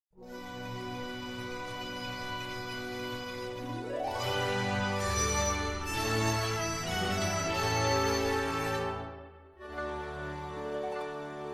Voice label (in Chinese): 女 纪录片 滇剧